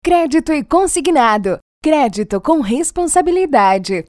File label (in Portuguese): Vinheta em Off